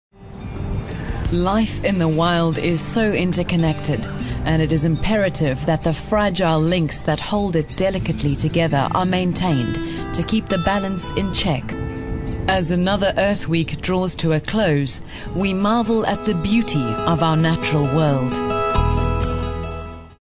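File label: South African English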